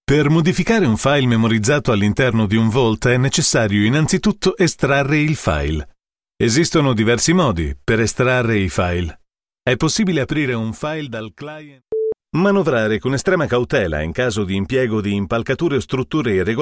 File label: Italian Training E Learning; demo